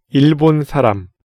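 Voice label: "일본 사람", Korean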